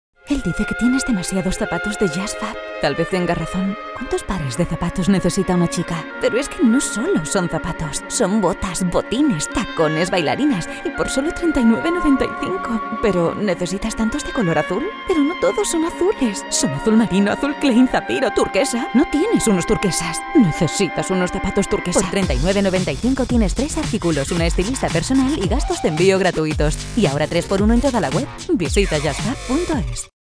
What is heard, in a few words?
Spanish Spot; JustFab